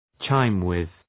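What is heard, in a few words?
"chime with", English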